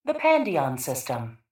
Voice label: PA System